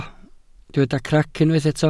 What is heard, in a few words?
"crac", welsh